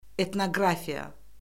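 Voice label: "etnoqrafiya", Azerbaijani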